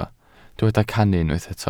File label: "cannu", Welsh